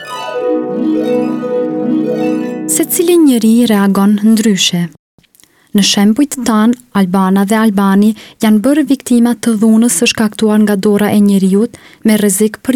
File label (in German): Albanisch; Informacione - Secile njeri reagon ndrysche